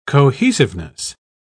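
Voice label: "cohesiveness", British English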